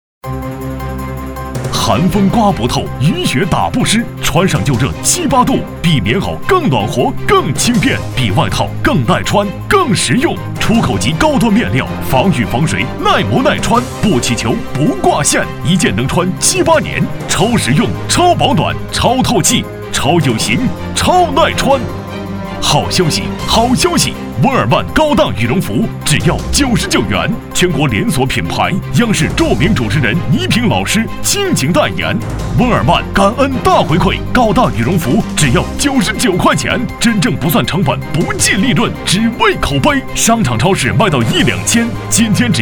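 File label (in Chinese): 电视购物激情叫线羽绒服; 电视购物-激情叫线-倪萍代言羽绒服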